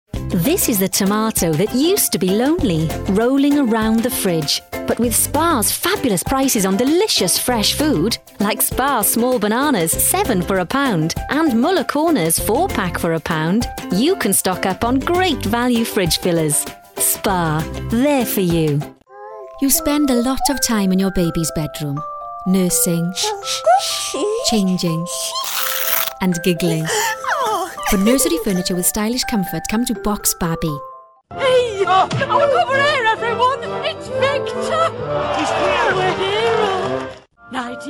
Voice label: English Showreel